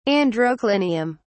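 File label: "androclinium", English, British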